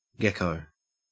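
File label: "gecko", Australian English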